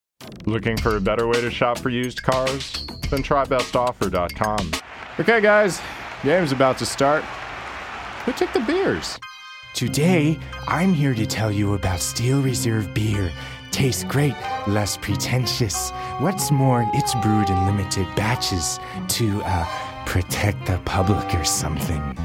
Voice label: Commercial and Character